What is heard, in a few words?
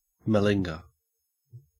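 "malinger", Australian English; pronunciations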